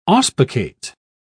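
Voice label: "auspicate", British English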